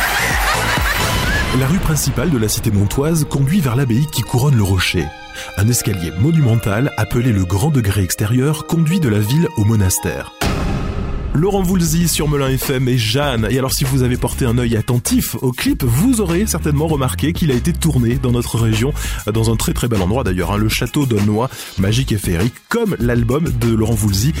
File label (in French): Voix Pour Publicité